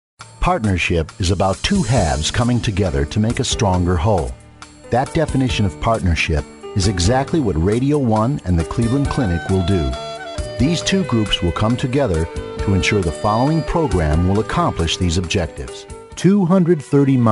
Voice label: Narration